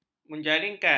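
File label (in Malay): "menjaringkan", Malay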